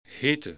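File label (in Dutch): "heten", Dutch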